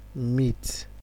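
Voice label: "meet", Nigerian Pidgin, male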